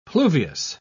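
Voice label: "pluviose", British English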